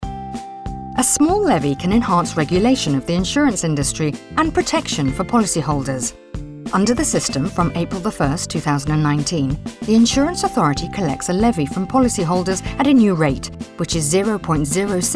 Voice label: Radio Eng